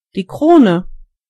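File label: crown, German voices words; gcompris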